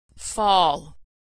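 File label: "fall", American English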